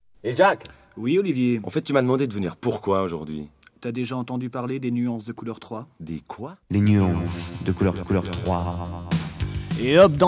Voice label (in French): radio pub spot